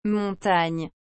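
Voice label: "montagne", French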